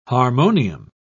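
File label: "harmonium", British English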